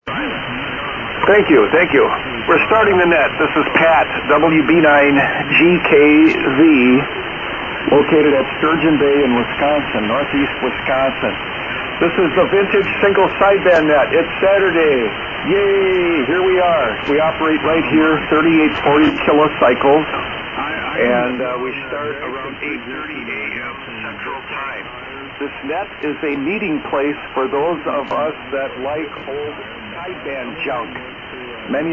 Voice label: Net Control Kenwood TS-830S MC-50 Mic & AL-80B Amp 80m Loop Antenna